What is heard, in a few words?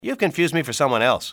confused, english male; XBOX; civ